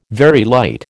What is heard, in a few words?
"Very light", American